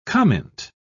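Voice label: "comint", British English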